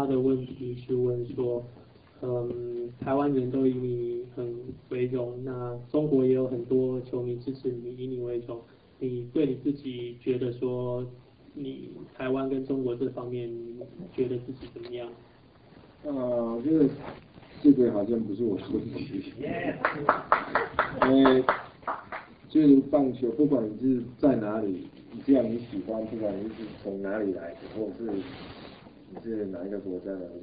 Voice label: Taiwanese Chinese